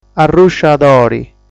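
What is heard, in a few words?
"Arrusciadori", Sardinian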